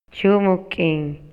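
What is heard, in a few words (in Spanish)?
Maya, Yucatán, male; INALI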